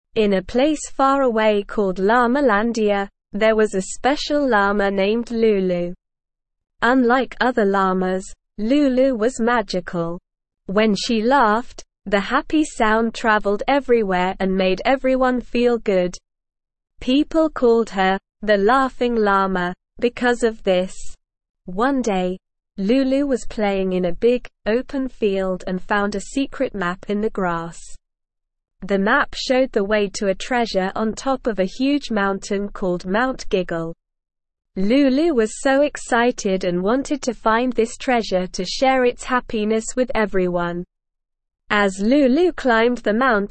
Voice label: ESL Short Stories for Kids Upper Intermediate SLOW Reading; The Legend of the Laughing Llama